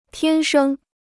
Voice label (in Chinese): "天生", Chinese